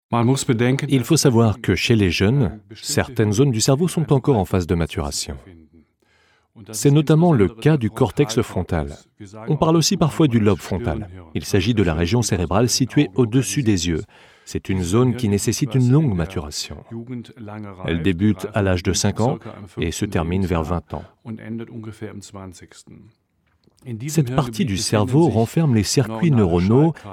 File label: voice-over; French; suva jaencke differences